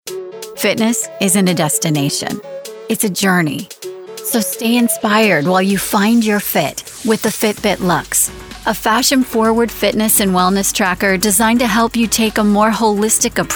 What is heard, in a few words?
Corporate Narration